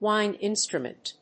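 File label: "wind instrument", English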